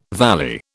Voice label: "valley", British